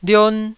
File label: "lion1", taiwanese syllable